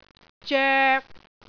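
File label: "zoek3", Cantonese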